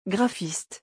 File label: "graphiste", French